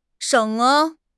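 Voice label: "sheng3", Chinese; HSK4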